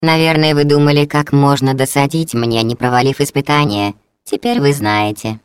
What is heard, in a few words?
taunt robot arm ripple, ru; GLaDOS